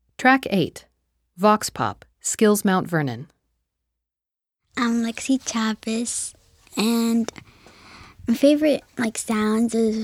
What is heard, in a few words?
Vox Pop; Mt Vernon; Blind Youth Audio Project 2017